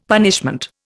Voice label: "punishment", Indian; Dictionary